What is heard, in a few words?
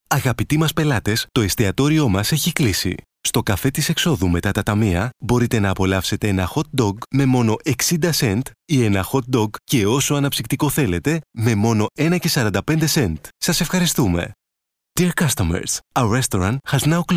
Announcment Greek English